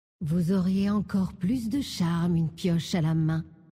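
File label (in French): French Femme Flirt; PiocheMain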